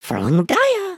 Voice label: group talk; emotes

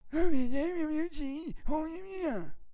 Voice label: Old Man Talk; dialog

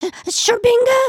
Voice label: talk scared; emotes

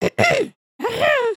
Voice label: Pick me, emotes

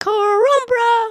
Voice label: talk kind; emotes

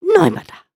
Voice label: group talk; emotes

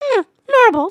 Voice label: talk kind; emotes

